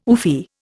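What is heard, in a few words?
"oofy", Indian English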